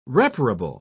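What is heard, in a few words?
"reparable", British English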